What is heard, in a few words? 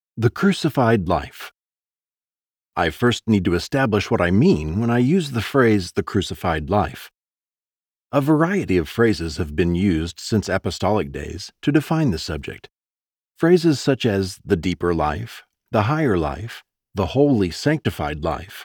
Audiobook Nonfiction Sample Christian Living